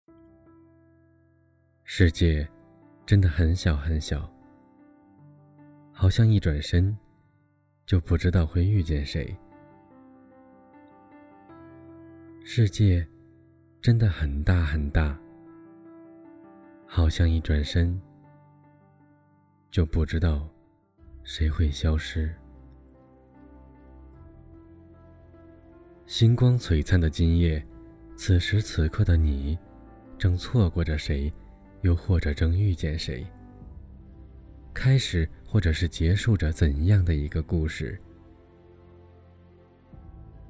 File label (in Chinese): 电台 主持 情感 电台